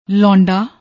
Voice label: "londa", Punjabi; ਲੌਂਡਾ